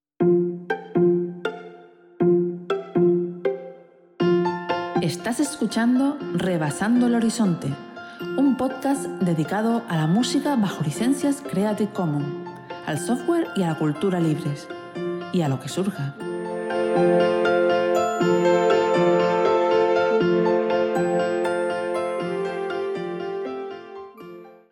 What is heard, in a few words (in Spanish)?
Promo